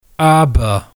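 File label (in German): "aber", German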